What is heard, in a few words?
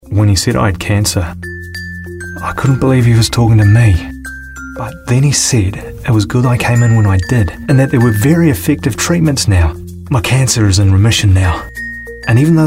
Hospice Commercial